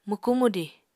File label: "mwkú-mwdiñ", Apatani